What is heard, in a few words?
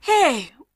"hey", voice